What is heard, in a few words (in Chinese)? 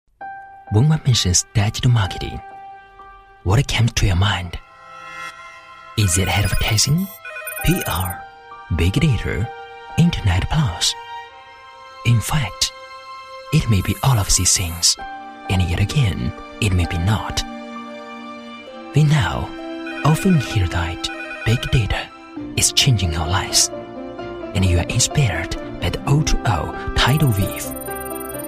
英男, 数字营销